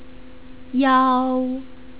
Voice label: "yao", Chinese pronunciation